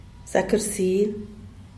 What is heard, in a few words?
"zak rsil", buenos dias; Díaz Ordaz